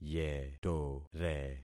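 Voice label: "yetore", concatenated syllables; Affixing experiment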